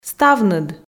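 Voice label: "ставныд", Komi, female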